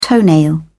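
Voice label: "toenail", British English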